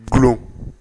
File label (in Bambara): "gulon", Bambara; actions